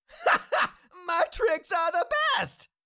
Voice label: Cartoon little monster voice my tricks are the best